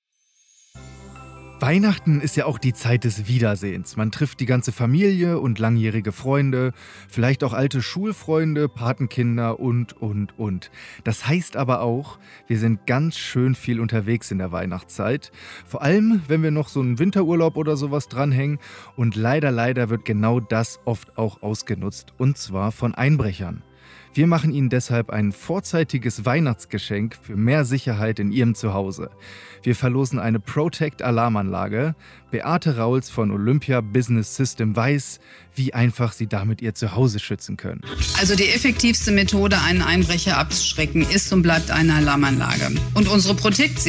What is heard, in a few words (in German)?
Radioadvent